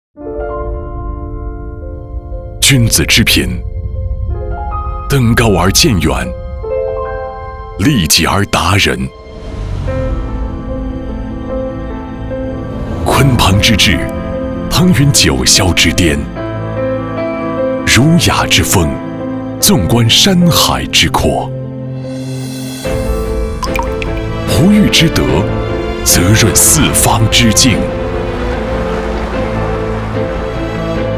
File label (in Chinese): 男 品质广告; 君品习酒成